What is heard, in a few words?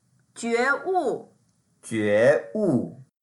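"jue2wu4", Mandarin Chinese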